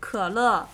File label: "Kele", Chinese